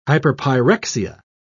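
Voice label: "hyperpyrexia", British English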